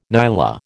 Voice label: "nyala", American